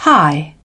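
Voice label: "high", British English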